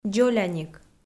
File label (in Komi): "дзоляник", Komi